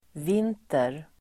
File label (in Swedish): "vinter", Swedish